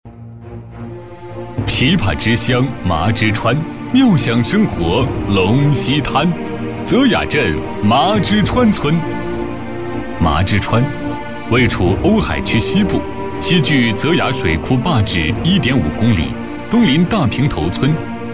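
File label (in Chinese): 枇杷之乡, 沉稳大气 专题片/宣传片 语言:普通话 性别:男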